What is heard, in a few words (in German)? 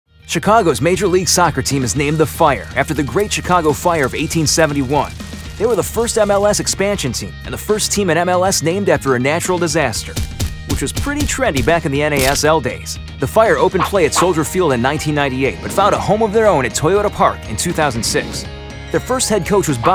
Dokumentarfilm Erzahler; Jugendfrei; NATIVE PROFISPRECHER